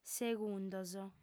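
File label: "segundos", lingua sarda, female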